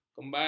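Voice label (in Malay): "kembali", Malay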